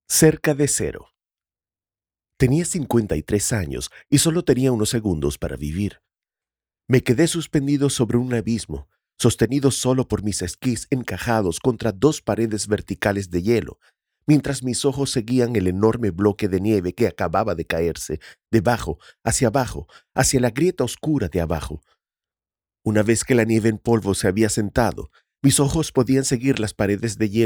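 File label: Audiobook, Spanish; Wooden Bazooka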